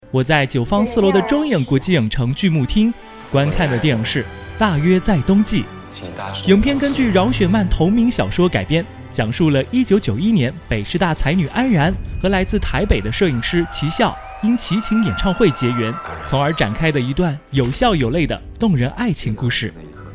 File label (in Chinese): 电影解说